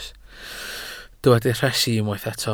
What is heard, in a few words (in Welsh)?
"rhesi", Welsh